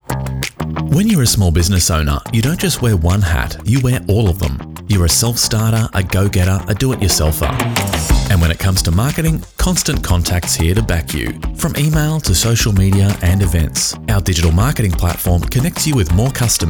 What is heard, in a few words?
voiceover radio